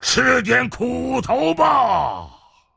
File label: Attack, zh